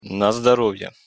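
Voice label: pronunciation "на здоровье", Russian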